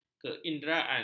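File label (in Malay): "keinderaan", Malay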